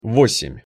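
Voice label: "vosem", Russian pronunciation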